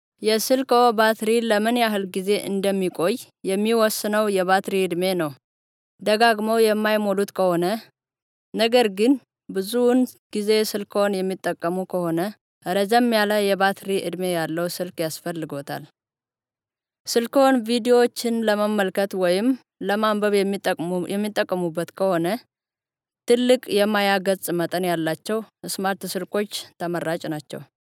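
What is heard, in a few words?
Amharic female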